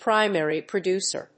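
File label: "Primary producer", English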